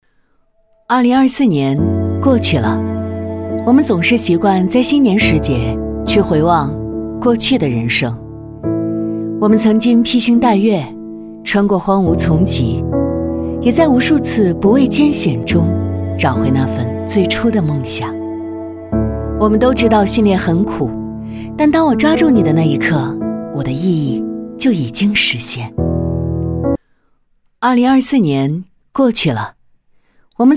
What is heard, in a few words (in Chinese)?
女, 新年回顾; xx年过去了